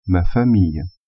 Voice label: "famille", French